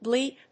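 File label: "bleep", English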